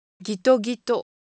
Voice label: Japanese Texture, Ideo Phones